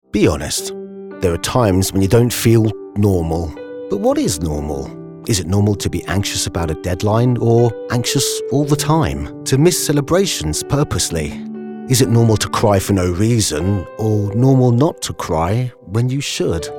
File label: Commercial; Bupa